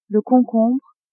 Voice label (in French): "concombre", French; legumes